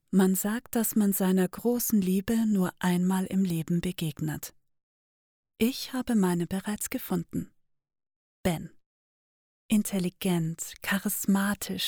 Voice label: Hoerprobe New Adult

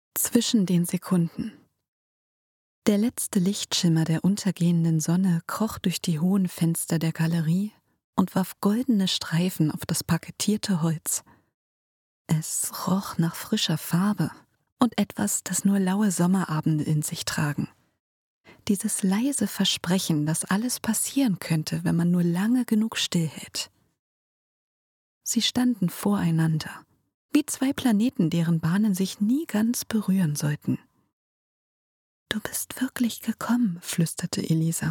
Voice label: Romantik

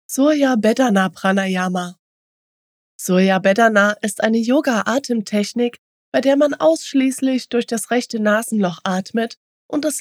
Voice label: Ratgeber

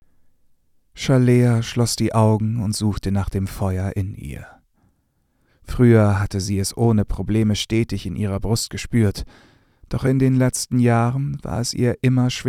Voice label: Hoerprobe Fantasy, stimmen maennlich

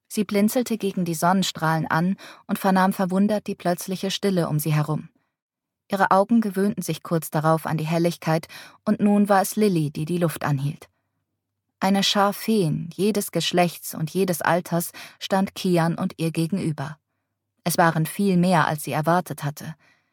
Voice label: Fantasy, Hörbücher; Stimmen Weiblich; Prinzessin der Feen

